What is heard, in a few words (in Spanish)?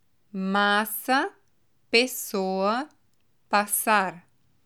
sonido de SS, portugués; como se pronuncia la letra s en portugues